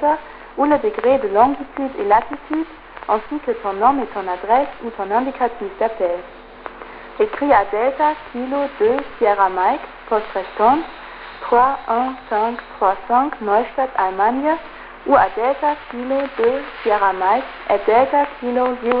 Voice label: French message